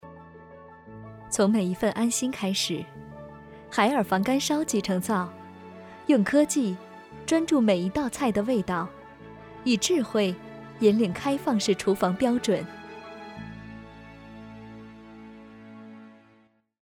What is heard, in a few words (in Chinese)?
女广告成熟优雅